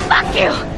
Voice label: "fuck you", male; expletives